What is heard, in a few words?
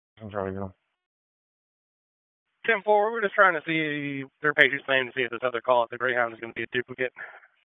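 EMS Dispatch, p25; wake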